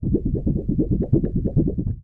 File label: Zombie